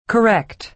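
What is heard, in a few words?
"correct", American English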